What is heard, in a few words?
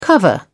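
"cover", British English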